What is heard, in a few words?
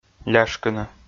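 "ляшкыны", Komi, male; kpv audio dict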